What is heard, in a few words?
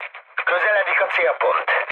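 Hungarian near waypoint mood med, speech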